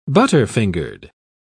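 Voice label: "butterfingered", English, British English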